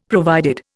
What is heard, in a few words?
"provided", Indian; Dictionary